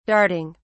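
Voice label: "darting", English, British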